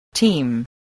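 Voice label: "teem", English word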